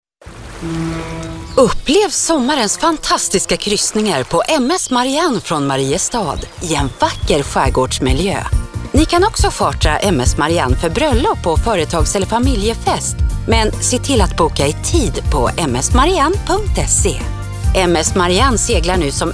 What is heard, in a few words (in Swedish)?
Reklam; MS Marianne